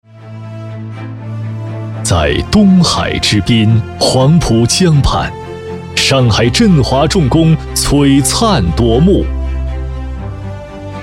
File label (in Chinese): 男 专题 磁性大气; 国企振华重工